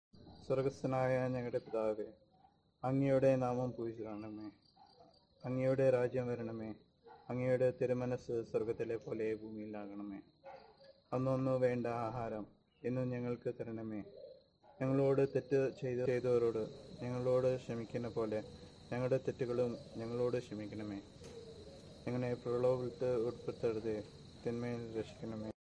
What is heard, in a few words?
malayalam